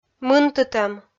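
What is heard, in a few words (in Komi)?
"мынтытӧм", Komi, female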